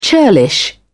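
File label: "churlish", British English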